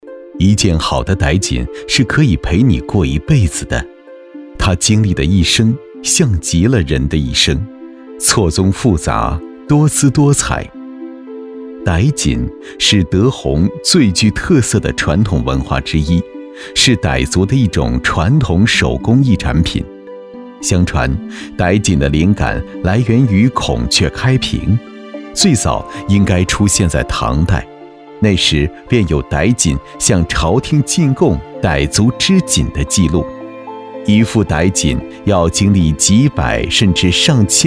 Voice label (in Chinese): 男-纪录片; 傣锦